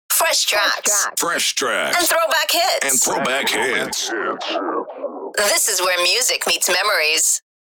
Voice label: Vocals radio voice sweeper fresh tracks and throwback hits